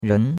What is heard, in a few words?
"ren2", chinois; Cours-de-Chinois